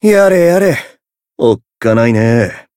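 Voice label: Voice encounter fear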